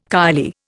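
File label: "kylie", Indian English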